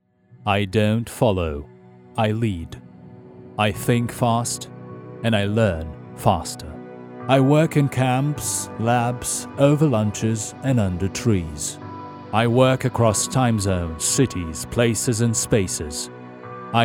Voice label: commercial uk